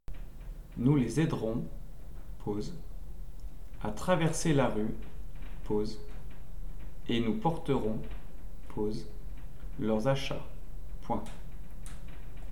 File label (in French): dictee